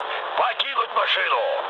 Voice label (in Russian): bailout, mood low, speech ru; War Thunder